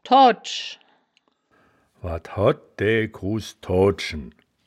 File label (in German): "toadsch", wittlich